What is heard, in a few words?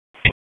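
FIRE Operations, p25; FIRE OPS 3; wake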